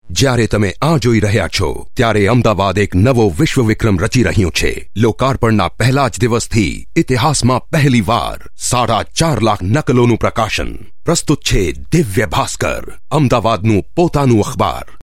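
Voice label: Indian Gujarati, Male; Paper Srereop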